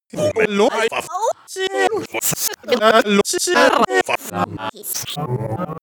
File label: glitch talk fx